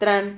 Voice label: "tran", Silabas

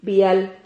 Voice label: "bial", Silabas

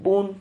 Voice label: "vun", Silabas

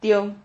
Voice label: "tio", Silabas

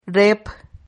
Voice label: "rep", Silabas

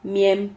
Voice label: "miem", Silabas

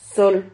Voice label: "zol", Silabas

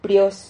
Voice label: "prios", Silabas

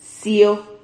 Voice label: "cio", Silabas

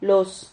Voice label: "los", Silabas

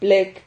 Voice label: "plec", Silabas

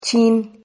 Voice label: "chin", Silabas

